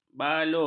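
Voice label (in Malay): "balur", Malay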